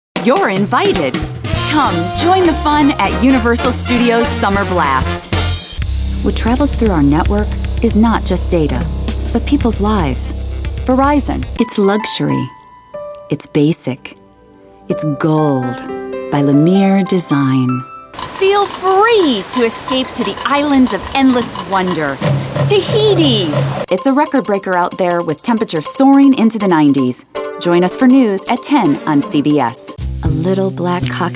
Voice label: commercial no slate